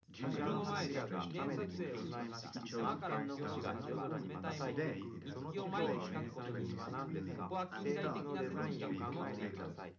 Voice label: male, live rec